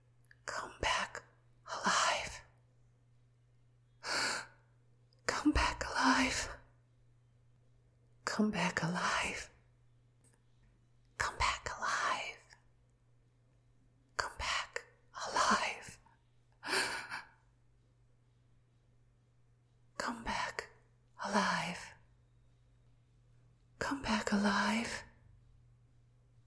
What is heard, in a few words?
Come Back Alive Whisper